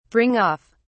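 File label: "bring off", British English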